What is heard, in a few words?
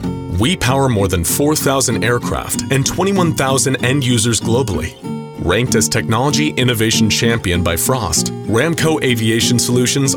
corporate voice over; Ramco